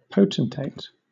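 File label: "potentate", British English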